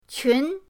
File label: "qun2", pinyin